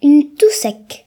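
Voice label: "toad", Breton; gcompris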